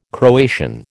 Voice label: "Croatian", American

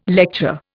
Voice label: "lecture", Indian

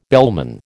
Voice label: "bellman", American

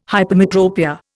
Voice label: "hypermetropia", Indian

